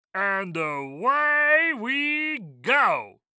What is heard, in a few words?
Cartoon Voice Nerdy; And Away We Go; AdobeCC